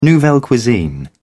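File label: "nouvelle cuisine", British English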